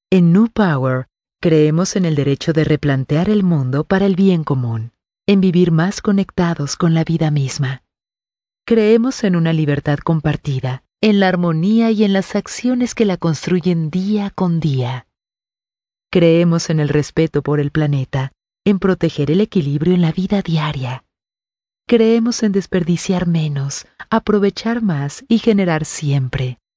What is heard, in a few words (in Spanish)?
Spanish South America, F